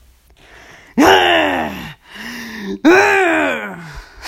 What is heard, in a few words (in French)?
Colère